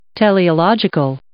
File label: "teleological", English